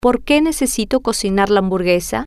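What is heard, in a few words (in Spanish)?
Porque Necesito Cocinar Hamburguesa, spanish, female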